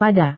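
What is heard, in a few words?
"pada", Indonesian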